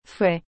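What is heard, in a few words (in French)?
"fouet", French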